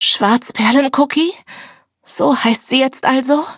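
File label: loading, German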